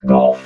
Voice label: "golf", robot